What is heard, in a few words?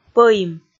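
"пӧим", Komi, female; kpv audio dict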